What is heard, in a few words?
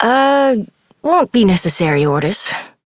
Operator Voice, en; New War; Ascend Tower